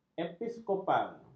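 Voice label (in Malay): "episkopal", Malay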